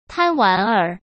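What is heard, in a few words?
"贪玩儿", Mandarin Chinese; chinese-flashcards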